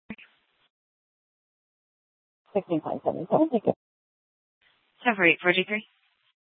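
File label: Police Department Dispatch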